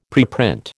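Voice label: "preprint", American English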